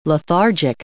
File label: "lethargic", English